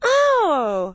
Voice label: "ohhhh", commentators; bowling; Gutterball 3